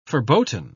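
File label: "verboten", British English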